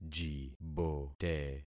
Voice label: "jibote", concat syllables; Affixing experiment

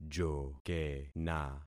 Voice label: "jokena", concat syllables; Affixing experiment

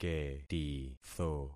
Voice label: "ketitho", concat syllables; Affixing experiment

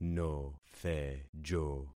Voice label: "nothejo", concat syllables; Affixing experiment

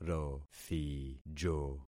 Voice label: "rothijo", concat syllables; Affixing experiment

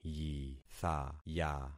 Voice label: "yithaya", concat syllables; Affixing experiment

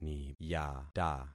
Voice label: "niyata", concat syllables; Affixing experiment

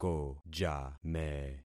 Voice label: "kojame", concat syllables; Affixing experiment

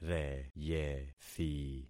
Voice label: "reyethi", concat syllables; Affixing experiment

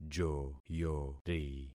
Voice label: "joyori", concat syllables; Affixing experiment

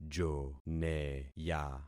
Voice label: "joneya", concat syllables; Affixing experiment

